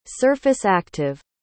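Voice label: "surface-active", English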